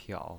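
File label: "piao" third tone, pinyin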